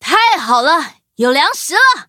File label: level up, zh-hant